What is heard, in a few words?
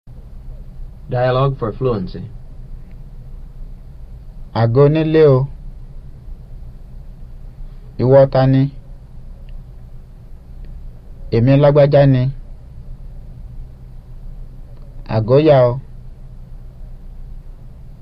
Yoruba; FSI; Basic